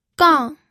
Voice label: "gang4", pinyin audio